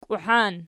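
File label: "kiuhan" nominative, Archi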